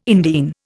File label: "indene", Indian